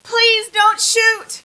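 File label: please don't shoot, civilian female; SINDEMO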